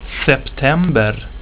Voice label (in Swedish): "september", swedish; tijden